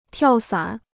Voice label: "跳伞", Chinese

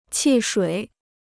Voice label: "汽水", Chinese

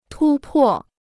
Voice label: "突破", Chinese

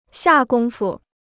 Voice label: "下功夫", Chinese

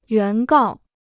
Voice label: "原告", Chinese

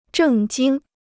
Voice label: "正经", Chinese

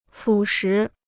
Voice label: "腐蚀", Chinese

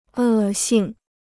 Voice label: "恶性", Chinese

